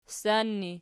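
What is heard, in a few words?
ssan ergative, Archi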